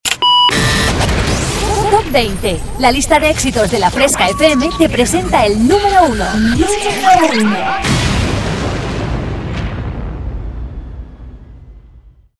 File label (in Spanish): jingle voz; indicativos radio